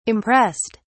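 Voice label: "impressed", British English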